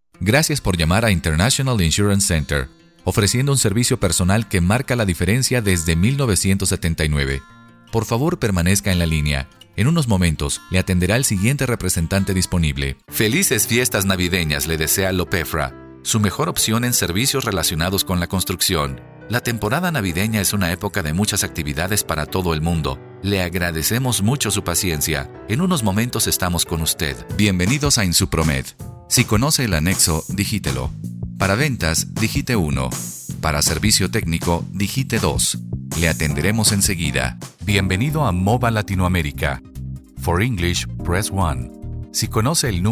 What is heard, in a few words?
Phone Prompt